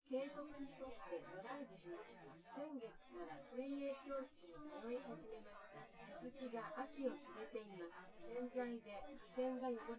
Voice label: female synthconv